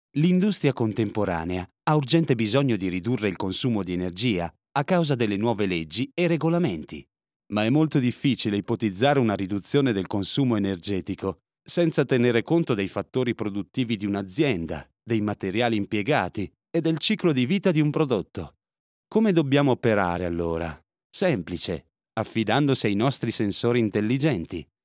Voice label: PRODUCT VIDEO; SENSORFACT

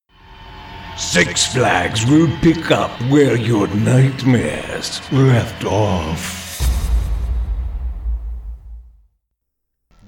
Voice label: Halloween Voices

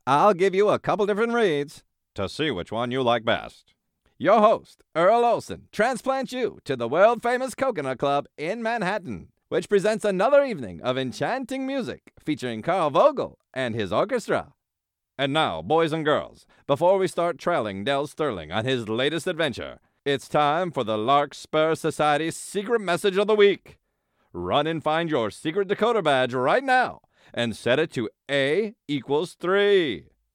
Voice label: 1930 Radio Announcer

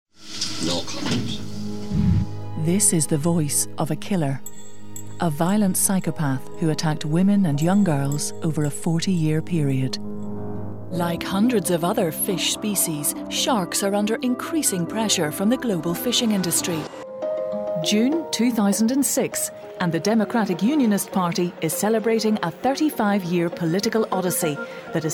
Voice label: TV narration

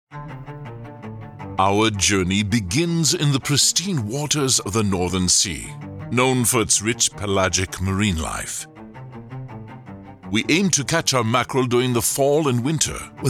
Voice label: Food fishery euro accent, Deep Male Voice; male voice actor located in Grobina, Latvia

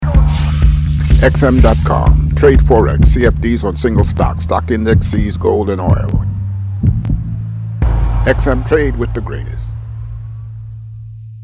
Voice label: Narrator; XM; The Greatest

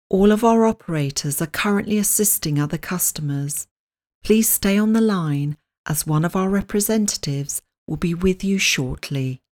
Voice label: Narration Telephone Operator

